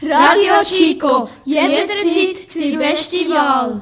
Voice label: Jingle Beschti Wahl; RadioChico; Unterseen

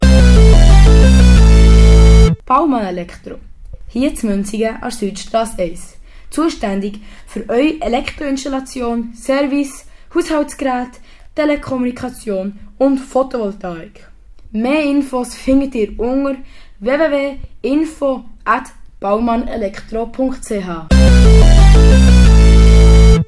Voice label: Werbespot Elektro; Baumann Elektro; Muensingen

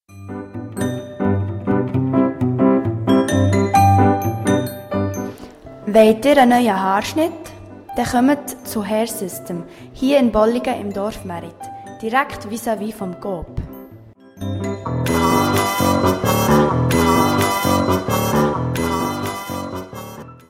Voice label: Jingle Friseur; Dalia; Bolligen

